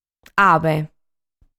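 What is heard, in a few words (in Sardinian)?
"Abe", Sardinian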